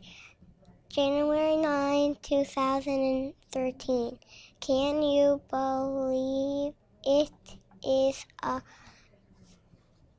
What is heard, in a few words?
morning announcements